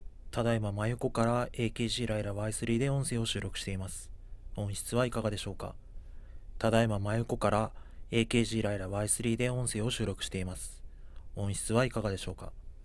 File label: AKG Lyra Y3 side